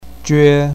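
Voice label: "jue1", Chinese pinyin, first tone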